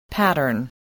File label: "pattern", English, voice